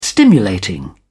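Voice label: "stimulating", British English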